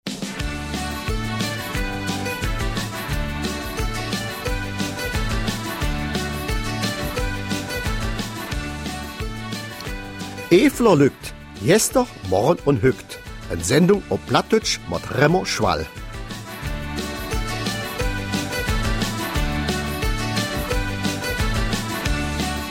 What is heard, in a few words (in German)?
Mundart